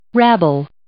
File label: "rabble", English